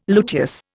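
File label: "luteous", Indian English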